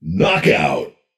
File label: booming male narrator "knockout"